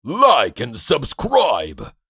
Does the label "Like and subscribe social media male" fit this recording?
Yes